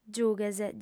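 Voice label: "giughes", Sardinian, female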